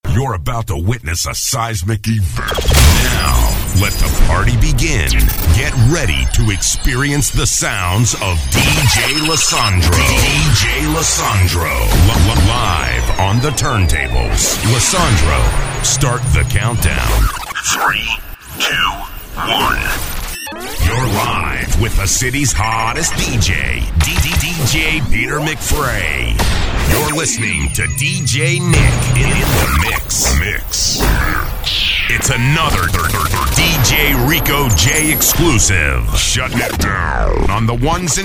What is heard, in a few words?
Imaging Drop